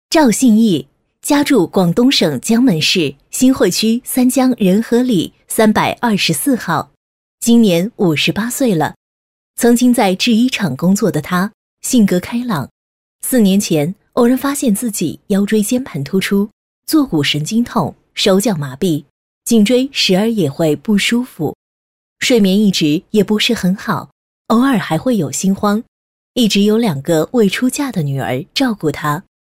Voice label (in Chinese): 女,专题,沉稳,病人介绍